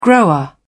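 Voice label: "grower", British English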